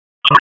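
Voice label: Wake Sheriff's Office Judicial, p25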